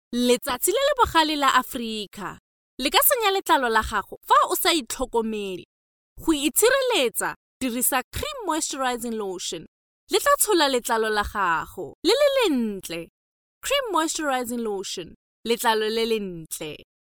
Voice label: female tswana voice over sales